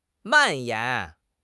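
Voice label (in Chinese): "蔓延", Chinese; HSK6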